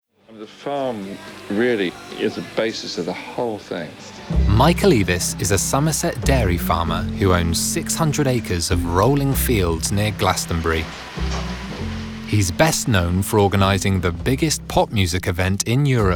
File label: Narrative Corporate